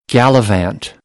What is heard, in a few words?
"gallivant", American; English; ə - ɪ